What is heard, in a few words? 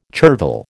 "chervil", American